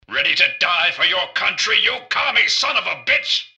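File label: robot normal to combat; mrgutsy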